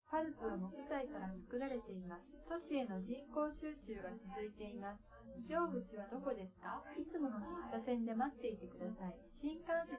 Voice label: female live rec; SiSEC10; underdetermined

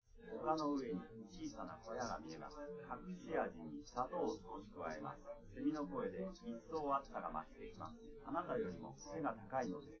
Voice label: male live rec; SiSEC10; underdetermined

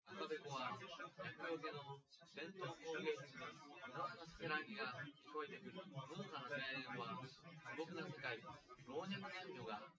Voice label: male, synth conv, 250ms, 5cm; SiSEC08; SiSEC underdetermined

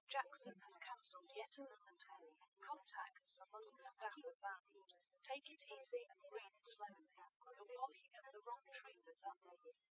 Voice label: female, live rec; SiSEC08; SiSEC underdetermined

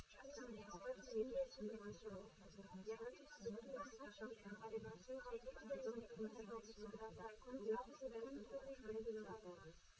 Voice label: female liverec; SiSEC11; underdetermined

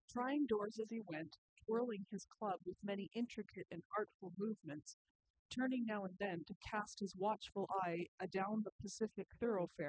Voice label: female4 inst sim, underdetermined; SiSEC08

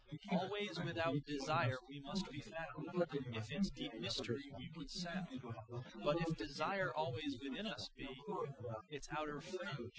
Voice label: male inst; SiSEC08; SiSEC underdetermined

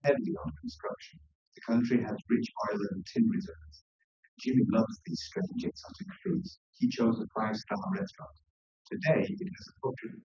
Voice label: male; SiSEC10; underdetermined

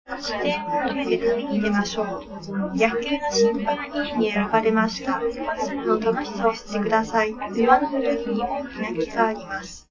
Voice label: female live rec 5cm sim; SASSEC07

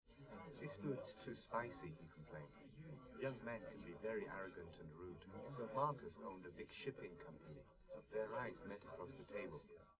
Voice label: male, live rec; SiSEC11; underdetermined

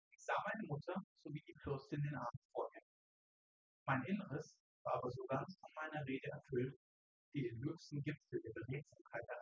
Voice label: male synthconv; SiSEC08; SiSEC underdetermined

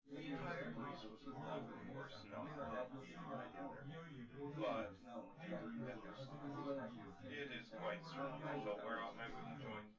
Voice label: male synth conv

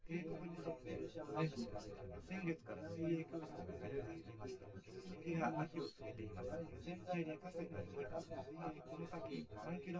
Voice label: male synth conv sim; SiSEC10; underdetermined

